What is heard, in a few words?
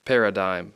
"paradigm", English; I (long sound); Speech Pro